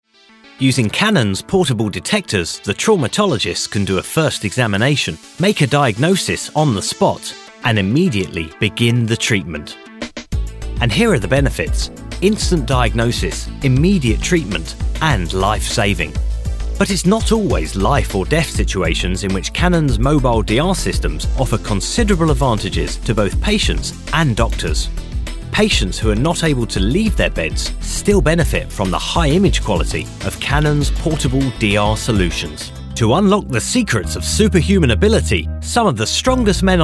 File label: Narrative Voice Over